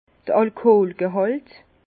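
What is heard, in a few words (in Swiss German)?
"alkoholgehalt", Alsace Bas Rhin; force alcoolique; vin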